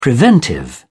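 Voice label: "preventive", British English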